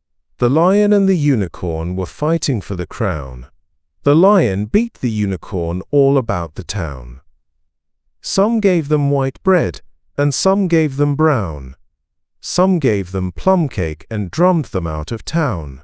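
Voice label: male British, classic rhymes; The Lion and the Unicorn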